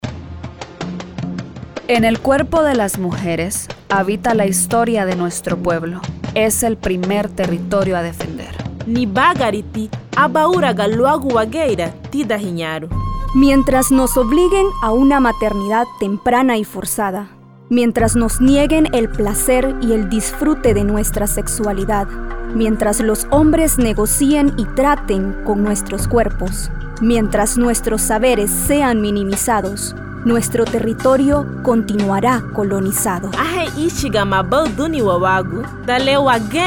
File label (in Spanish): Cuña radial; campaña Foro Mi Territorio no es propiedad